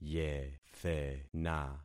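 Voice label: "yethena", concatenated syllables; Affixing experiment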